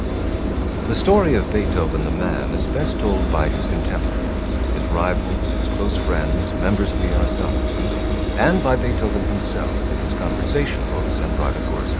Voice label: noisy speech